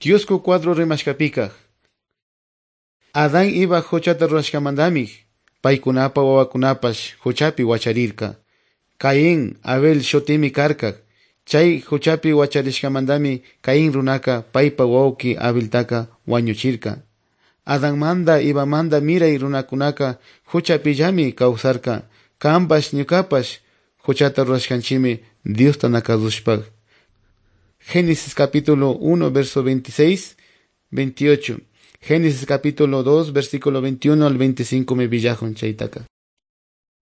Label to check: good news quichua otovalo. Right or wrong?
Right